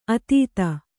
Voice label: "ಅತೀತ", kannada